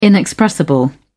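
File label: "inexpressible", British English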